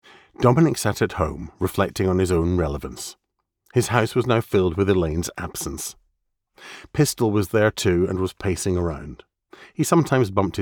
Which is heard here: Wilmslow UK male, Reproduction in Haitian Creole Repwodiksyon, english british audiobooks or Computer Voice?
english british audiobooks